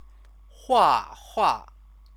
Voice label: "huahua", Chinese